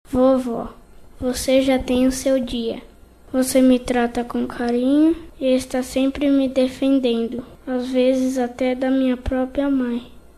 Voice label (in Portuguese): INFANTIL M; DIA DA AVÓ; Telemensagens 2017; Datas Mistas